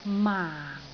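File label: "maang4", Cantonese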